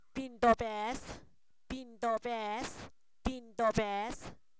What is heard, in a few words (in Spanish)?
bebé, Zapoteco, san miguel albarradas; humano; INALI